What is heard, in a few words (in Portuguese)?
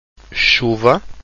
"chuva", Portuguese